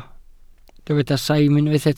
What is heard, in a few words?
"saim", Welsh